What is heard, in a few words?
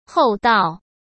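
"厚道", Mandarin Chinese; chinese-flashcards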